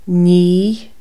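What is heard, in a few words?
wash imperative, Irish, Consonants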